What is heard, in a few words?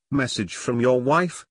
Wife message